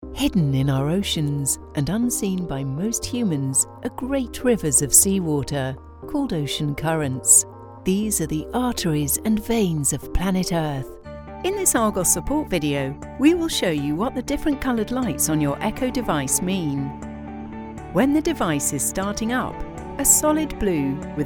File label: english british narration